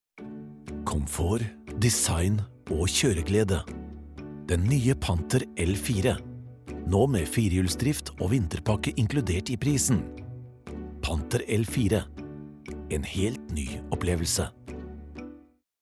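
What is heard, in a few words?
Norwegian soft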